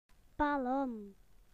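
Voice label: "balloon", British English; PictureDictionary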